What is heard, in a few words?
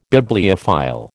"Bibliophile", American